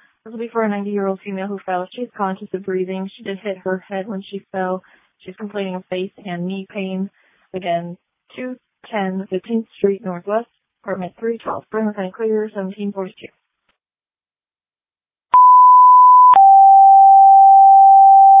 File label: Waverly Ambulance, dispatch